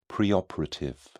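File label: "preoperative", British English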